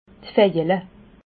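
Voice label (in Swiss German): "vejele", Alsatian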